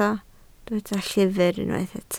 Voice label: "llyfr", Welsh

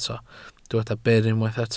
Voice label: "byr", Welsh

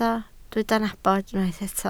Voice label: "nabod", Welsh

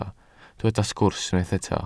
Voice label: "sgwrs" Welsh

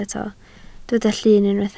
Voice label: "llun" Welsh

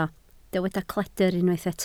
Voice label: "cledr", Welsh

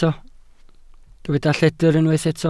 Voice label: "lledr", Welsh

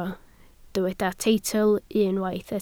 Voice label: "teitl", Welsh

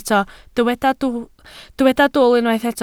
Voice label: "dwl", Welsh

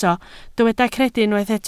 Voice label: "credu", Welsh

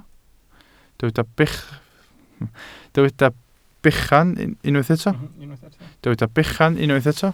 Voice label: "bechan", Welsh

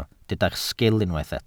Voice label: "sgil", Welsh

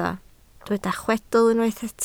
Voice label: "chwedl", Welsh

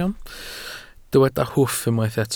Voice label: "hwff" Welsh

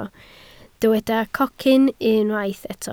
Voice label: "cocyn", Welsh

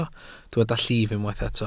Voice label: "llif", Welsh

